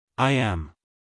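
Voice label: "I am", American English male; everyone-can-use-english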